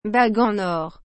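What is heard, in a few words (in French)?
"bague en or", French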